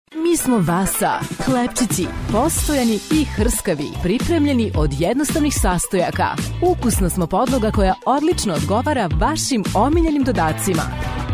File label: commercial; wasa